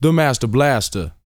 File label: BOAST MF; Vocal Planet; R&B Dance